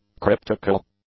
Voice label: "cryptical", English; adjectives